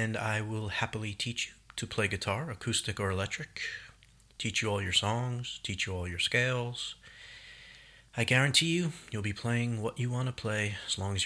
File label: VOICE OVER